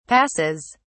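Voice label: "passes", British English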